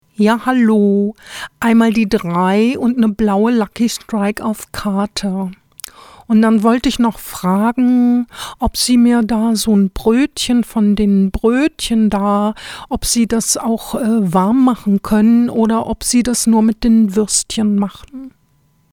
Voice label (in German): tankstelle, sprecherin; comix